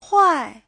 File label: "huai4", Chinese; Giáo trình Hán ngữ